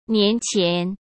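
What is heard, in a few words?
"年前", Mandarin Chinese; chinese flashcards; hsk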